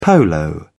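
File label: "polo", British English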